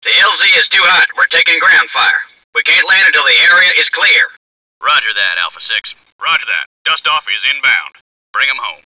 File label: pilot radio; cs venice